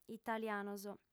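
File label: "italianos", Sardinian, female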